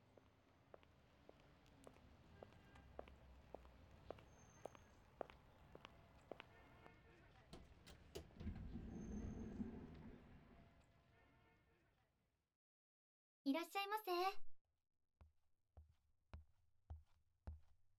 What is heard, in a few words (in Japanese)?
囁き声で接客するパン屋の可愛い店員